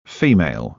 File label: female